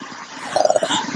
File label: uh fx, glitches growls words and tones; Ze Franks Pain Pack